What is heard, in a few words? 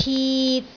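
"tit3", Cantonese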